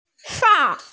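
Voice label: "shang4", Mandarin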